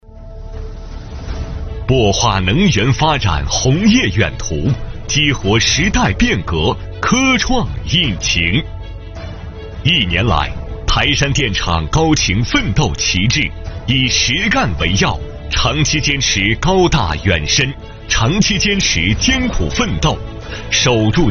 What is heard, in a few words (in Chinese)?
男 颁奖; 台山电厂年度总结